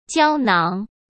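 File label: "胶囊", Mandarin Chinese; chinese flashcards; hsk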